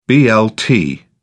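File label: "blt", British English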